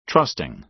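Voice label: "trusting", American English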